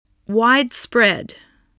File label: "widespread", voice, english